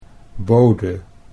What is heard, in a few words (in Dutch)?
"bode" Dutch